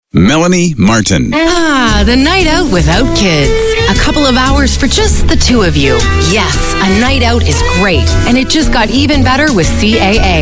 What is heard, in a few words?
Female VO Talent